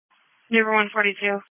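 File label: Police Patrol Dispatch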